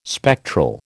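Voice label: "spectral", American English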